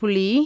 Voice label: tamarind, tamil; JIPA